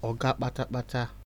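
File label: "oga kpatakpata", Nigerian Pidgin, male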